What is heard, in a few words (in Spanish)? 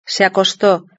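"se acostó", Spanish; verb-conjugator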